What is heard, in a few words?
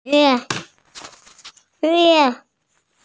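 "qian bi", Mandarin Chinese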